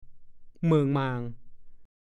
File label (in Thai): "เหมิ่งหม่าง", ภาษาโคราช; แสดงอาการทำหน้าตาตื่น ตกตะลึง เลิกลั่ก